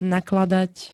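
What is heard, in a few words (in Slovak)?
"nakladať", Slovak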